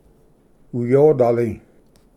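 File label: "uyodali", Cherokee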